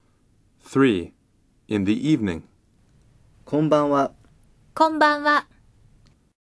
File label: Dialog